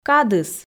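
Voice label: "кадыс", Komi, female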